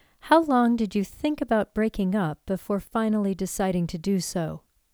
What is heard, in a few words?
english sample